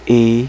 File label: "e" male, alphabet; Web Clip Empire 150,000